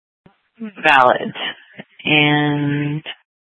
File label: Sheriff, scanner P25